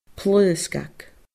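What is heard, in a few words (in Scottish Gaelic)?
"plaosgag", Scottish Gaelic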